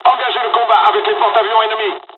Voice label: bombing, dialogs french; midway jpn; War Thunder

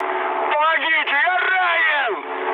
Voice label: damaged pilot, mood high, speech ru; War Thunder

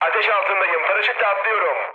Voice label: on fire, mood med, speech tur; War Thunder

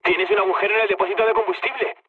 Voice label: damaged oil, mood low, speech; War Thunder

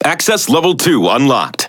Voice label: Speech Access Level, EN; World Of Warships

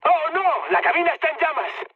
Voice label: on fire, mood med, speech; War Thunder

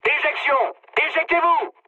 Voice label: bailout mood med, speech fr; War Thunder

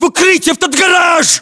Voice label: order cover garage, ru; localized english

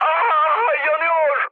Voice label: on fire, mood high, speech tur; War Thunder

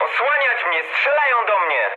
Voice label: aircraft damaged mood high, speech pol; War Thunder

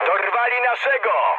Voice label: aircraft destroyed mood med, speech pol; War Thunder

